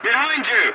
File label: voice message check your six, english